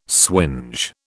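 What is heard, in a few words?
"swinge", British English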